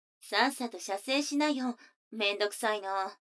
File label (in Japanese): 女の子 台詞